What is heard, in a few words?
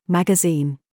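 TRAP, words, British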